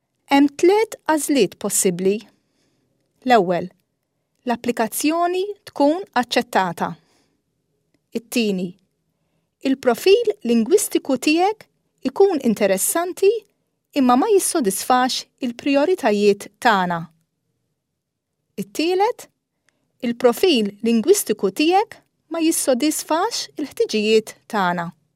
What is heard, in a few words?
Maltese